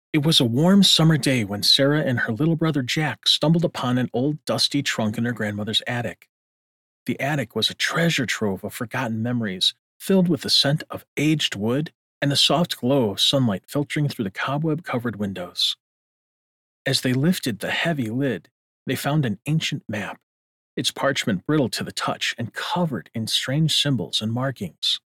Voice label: Audiobook